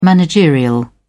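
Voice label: "managerial", British English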